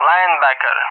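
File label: "Linebacker", English speech; Allied Flight; AirDefence; DCS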